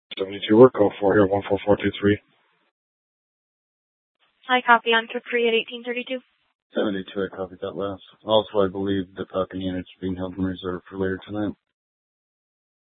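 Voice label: Mesa Police Department Superstition Dispatch; Mesa PD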